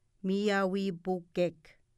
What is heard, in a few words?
"miawpukek", Mi'kmaw